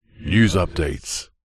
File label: multimedia radio male voice says news updates processed